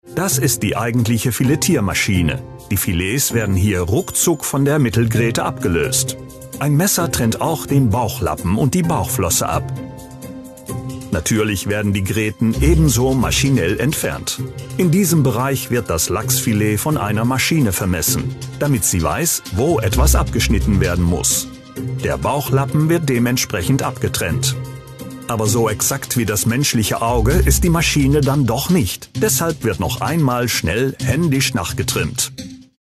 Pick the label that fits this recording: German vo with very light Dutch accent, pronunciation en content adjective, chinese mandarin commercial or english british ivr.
German vo with very light Dutch accent